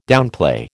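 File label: "downplay", American English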